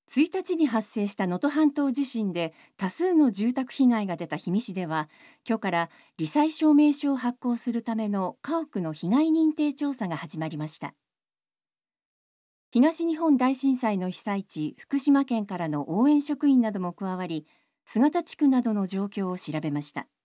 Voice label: Narration